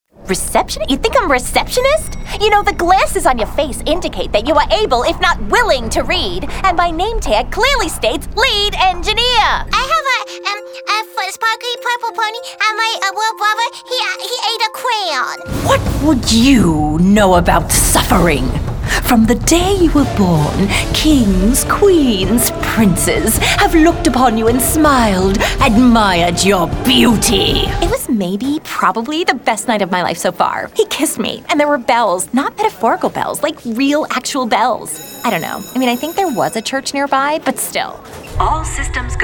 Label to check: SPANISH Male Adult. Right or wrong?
Wrong